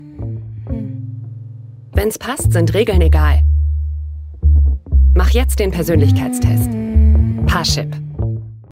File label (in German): Werbung; Parship